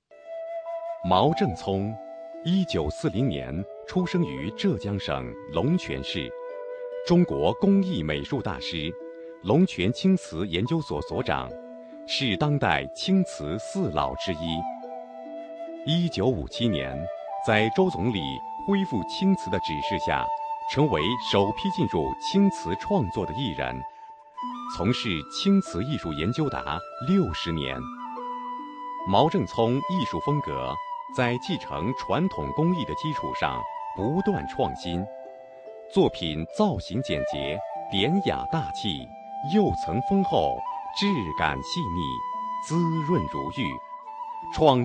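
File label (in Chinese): 男纪录片; 人物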